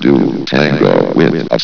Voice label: chat

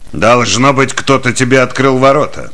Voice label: Cheer; Kingpin Fargus

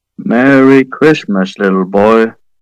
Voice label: merry christmas, bear; sven; santa bear

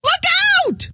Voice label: look out, scientist female

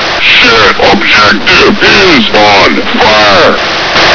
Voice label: grunt on fire

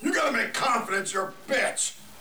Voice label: Chat

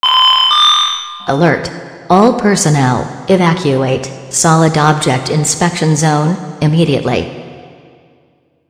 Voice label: announcement; sven; soiz

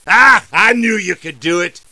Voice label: cheer; tf2demoman

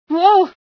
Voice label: female sci fear

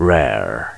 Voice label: "rare", word

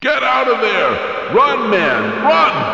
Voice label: run man

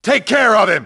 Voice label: "alien", grunt; nimble grunt

